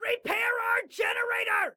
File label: Repair Generator, Excited Guy